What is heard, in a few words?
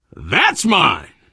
kill confirmation, biker, survivor voice